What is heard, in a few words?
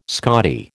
"Scottie", American